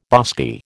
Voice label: "bosky", American